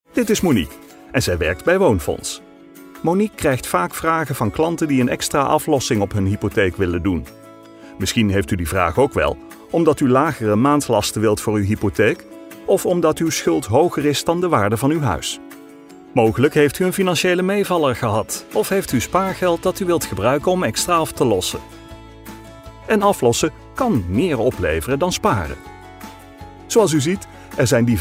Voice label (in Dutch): Southern accent self-evident; ZACHTE G